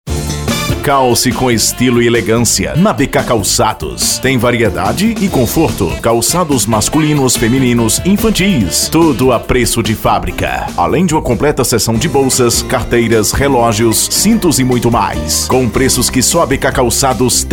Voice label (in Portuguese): spot, locutor; bk calcados